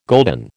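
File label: "golden", American English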